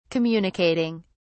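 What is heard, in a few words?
"communicating", British English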